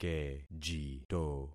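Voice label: "kejito", concatenated syllables; Affixing experiment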